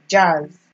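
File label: "jazz", Nigerian Pidgin, female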